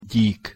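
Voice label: "gyik", Hungarian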